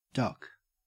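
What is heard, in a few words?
"duck", Australian English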